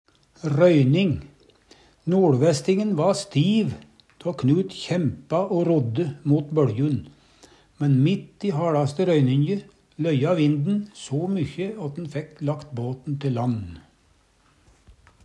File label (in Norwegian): "royning", Numedalsmål